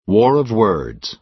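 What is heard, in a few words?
"war of words", American English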